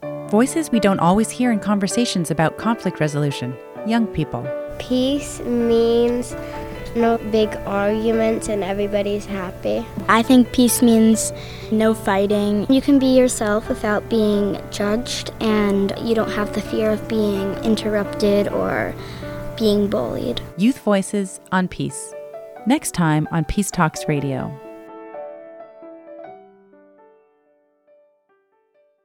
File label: Student Voices Promo